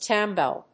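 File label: "Stamboul", English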